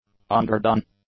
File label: "underdone", English; adjectives